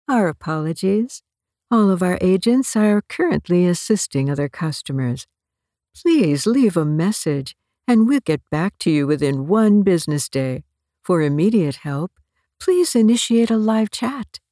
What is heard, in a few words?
VOICE MAIL SAMPLE